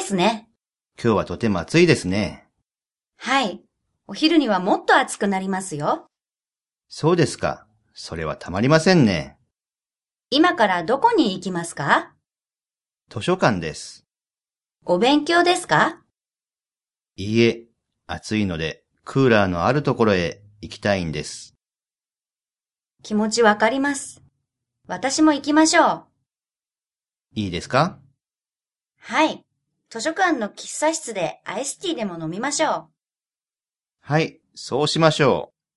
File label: Japanese Dialogue